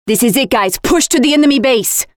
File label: Attack Base, Voiceovers; Project Argo